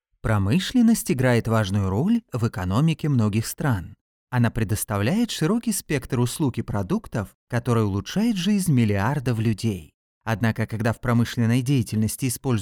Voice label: russian narration; Narración